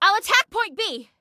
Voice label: ILL ATTACK, Female